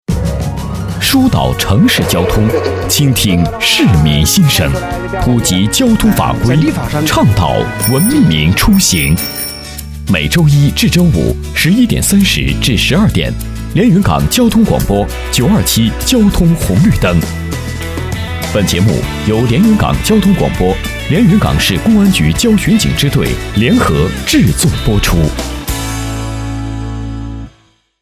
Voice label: 电台包装 男 亲切; 连云港交通广播

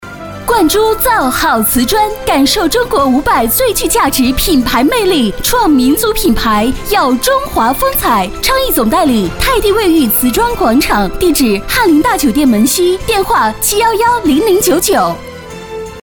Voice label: 促销 女 激情; 瓷砖

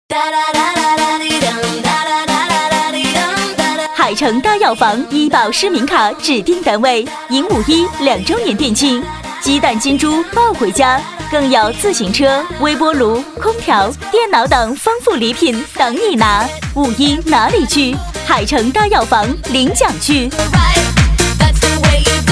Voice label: 促销 大药房 女 激情

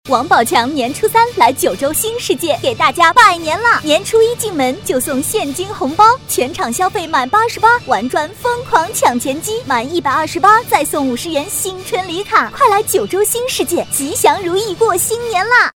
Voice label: 电台包装 女 激情; 商场; 新年; 商场王宝强新年女50

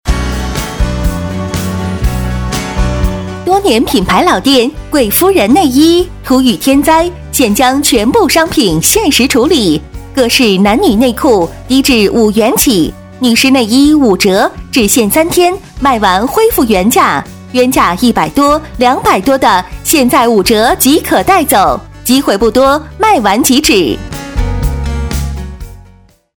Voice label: 促销 内衣 女 激情

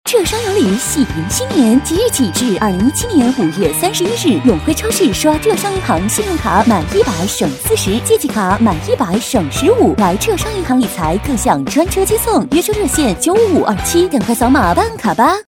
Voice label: 促销 浙商银行 女 激情

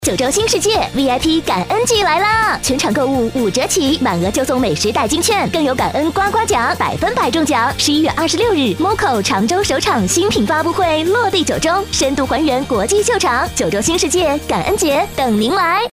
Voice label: 广告 九州商场女 活力轻快

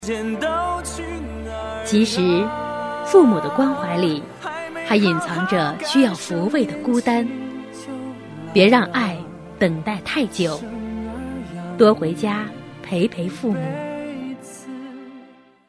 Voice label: 旁白 父母关爱女 感动

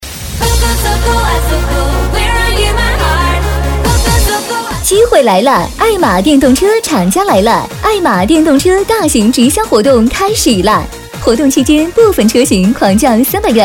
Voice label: 促销 电动车 女 激情; 爱玛电动车

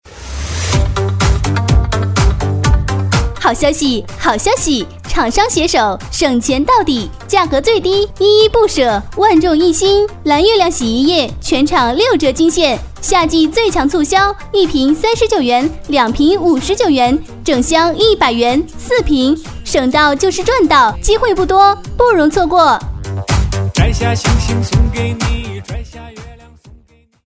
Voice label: 促销 女; 日化

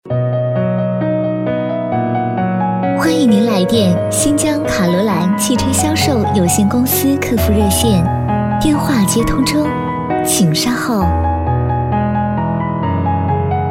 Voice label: 彩铃 女 温柔甜美

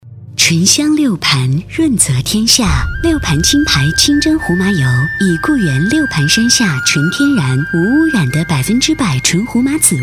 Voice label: 成熟; 胡麻油

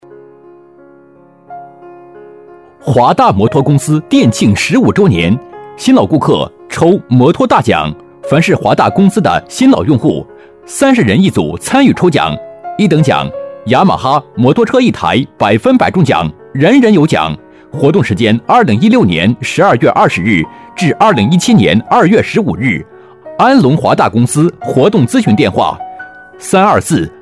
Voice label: 促销 摩托车男 磁性激情; 华大

